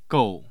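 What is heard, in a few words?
"gou", Mandarin Chinese, tone 4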